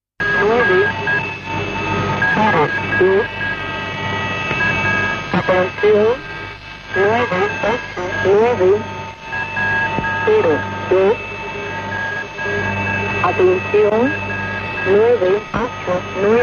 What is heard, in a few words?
spanish lady; irdial